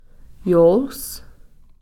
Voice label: "yools", steelhead salmon

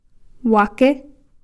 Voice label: "wakhi'", without

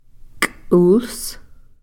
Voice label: "k'uls", Cow Creek

